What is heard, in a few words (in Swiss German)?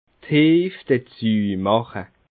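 "heef", Alsatian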